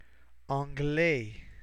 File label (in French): "anglais", french